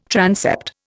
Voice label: "transept", Indian

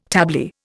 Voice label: "tablier", Indian

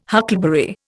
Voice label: "huckleberry", Indian

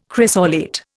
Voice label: "chrysolite", Indian

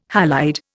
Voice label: "halide", Indian

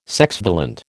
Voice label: "sexvalent", American

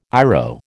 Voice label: "IRO", American

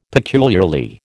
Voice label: "peculiarly", American

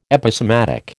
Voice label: "Episematic", American

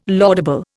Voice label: "laudable", Indian

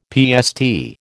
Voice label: "PST", American

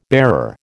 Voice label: "bearer", American

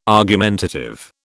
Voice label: "argumentative", British

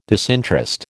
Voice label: "disinterest", American

